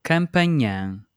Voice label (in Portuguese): "campanhã", Portuguese